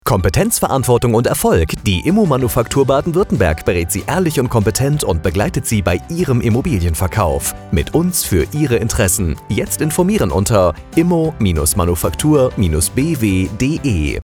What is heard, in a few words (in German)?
funkspot radio spot